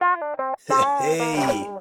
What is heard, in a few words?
big dance echo, hippie male